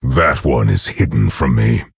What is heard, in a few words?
Orc Emote ERROR STEALTH